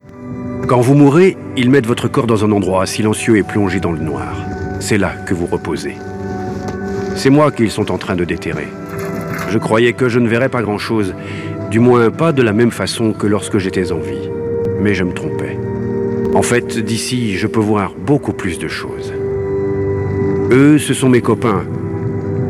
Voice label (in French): Narratif; DOCU; W9; Enquetes Crim